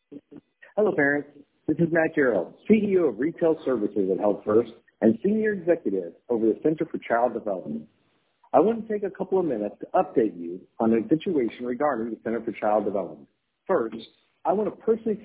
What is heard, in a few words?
voicemail